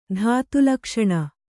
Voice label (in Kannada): "ಧಾತುಲಕ್ಷಣ", Kannada